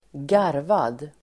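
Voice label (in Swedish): "garvad", Swedish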